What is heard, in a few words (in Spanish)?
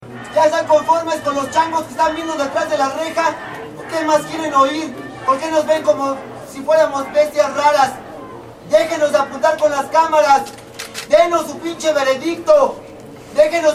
hombre; presas del penal de santiaguito; contra prensa zoologico